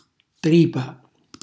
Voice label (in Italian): "tripa", Calabrese; buchi; cucina calabrese